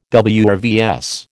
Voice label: "WRVS", American